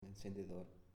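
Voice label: "Encendedor", Spanish, male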